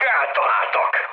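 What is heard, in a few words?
Hungarian aircraft damaged mood high, speech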